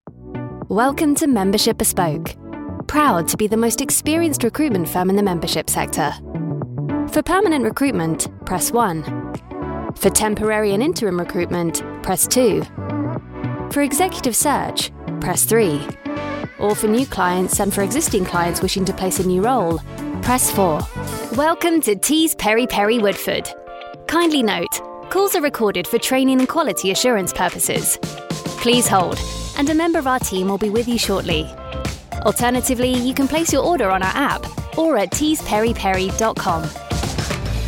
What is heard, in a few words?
IVR